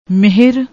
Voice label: "mehar", Punjabi

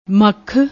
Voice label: "maakh", Punjabi

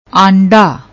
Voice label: "aanda", Punjabi

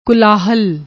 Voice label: "kulahal", Punjabi; ਕੁਲਾਹਲ

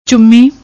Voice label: "chummi", Punjabi; ਚੁੰਮੀ

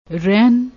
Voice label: "rehn", Punjabi; ਰਹਿਨ; رہِن; noun, masculine; mortgage, pledge; adjective; mortgaged, pledged, hypothecated

